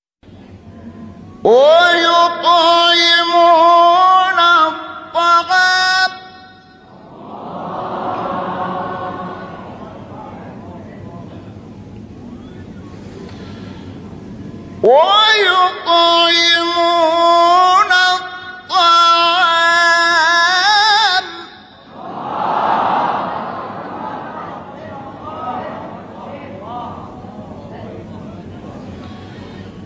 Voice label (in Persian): تلاوت آیه 8 سوره مبارکه انسان; استاد شحات انور